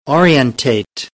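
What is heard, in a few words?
"orientate", American English; AmericanBritish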